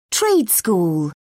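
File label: "trade school", British English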